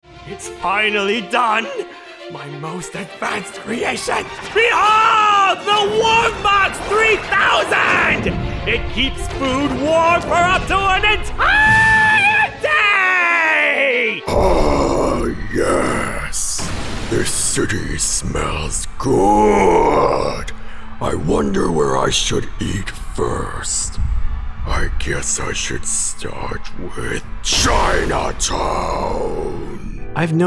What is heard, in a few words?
voice over talent character